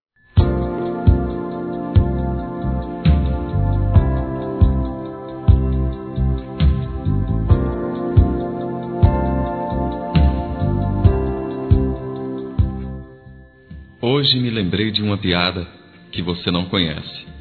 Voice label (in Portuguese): Estou com saudades masculino, telemensagens; Amizade